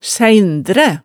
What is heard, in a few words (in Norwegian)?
"sæindræ", hemsedalsmaalet, tale